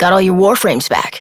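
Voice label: Adult, English; New War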